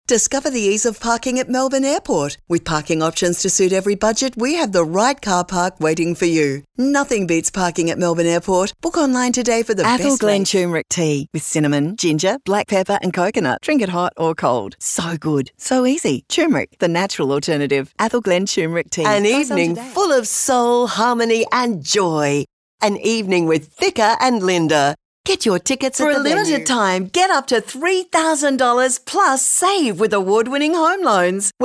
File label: Hard Sell